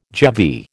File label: "GeV", American